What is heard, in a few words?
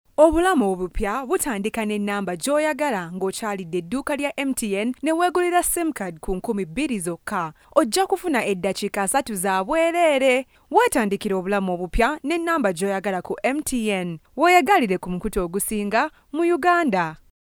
luganda voiceover locutora uganda